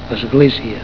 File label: "esglecia", Catalan